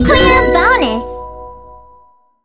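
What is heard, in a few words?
clear bonus, English; rlt app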